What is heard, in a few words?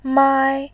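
"mai", Mandarin, tone 1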